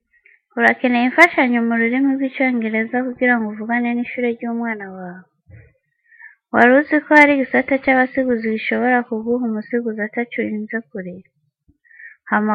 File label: kirundi; public schools; going to a public school